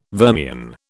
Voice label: "vermian", British

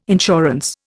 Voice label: "insurance", Indian

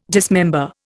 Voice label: "dismember", Indian

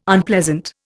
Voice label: "Unpleasant", Indian

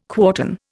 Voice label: "quartan", Indian

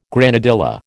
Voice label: "granadilla", American

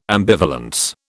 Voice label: "ambivalence", British

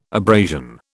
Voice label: "abrasion", British

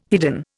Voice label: "hidden", Indian

